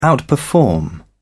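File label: "outperform", British English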